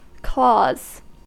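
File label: "clause", American English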